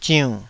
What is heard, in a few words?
"ziunn3", taiwanese